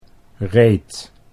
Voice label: "reed", Dutch